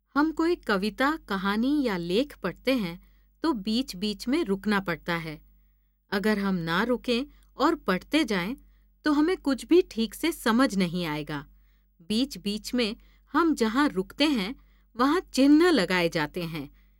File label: hindi voice